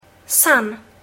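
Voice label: "sun", English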